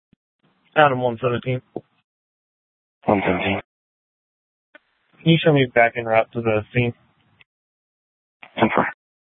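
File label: Sheriff, Parks, Sheriff radio scanner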